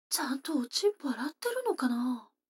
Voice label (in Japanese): 女の子台詞